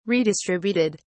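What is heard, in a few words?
"redistributed", English, British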